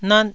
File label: "nat1", taiwanese